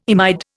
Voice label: "imide", Indian

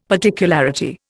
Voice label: "particularity", Indian; Dictionary

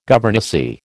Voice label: "governessy", American

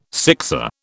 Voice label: "sixer", British

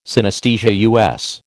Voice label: "synesthesia", US

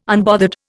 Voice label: "unbothered", Indian

